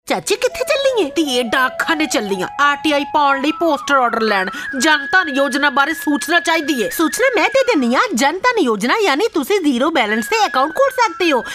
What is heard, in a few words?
Punjabi